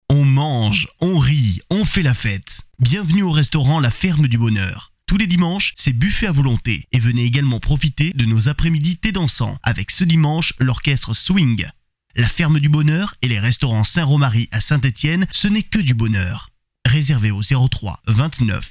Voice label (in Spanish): locutor frances